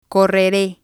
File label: "correre", spanish